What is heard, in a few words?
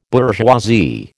"bourgeoisie", American